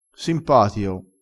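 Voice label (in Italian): "simpatio", vocabolario dialettale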